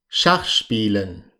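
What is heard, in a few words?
schach spielen, German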